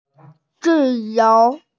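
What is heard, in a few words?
"zi you", Mandarin Chinese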